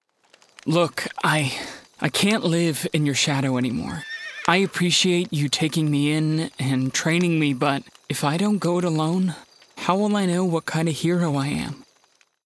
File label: Hero Sidekick Teen